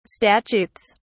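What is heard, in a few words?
"statutes", British English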